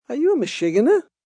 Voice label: You Mashugina, Attitude, male: 'Are you Mishegenuh' (crazy), (human voice speaking); Talking; Voice Box